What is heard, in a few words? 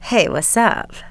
"wassup", female; kingpin; yolanda